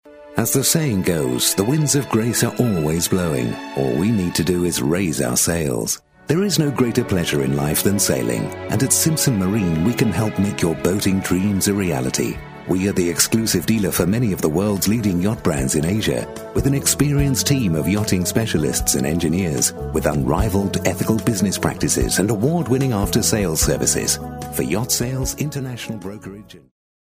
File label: male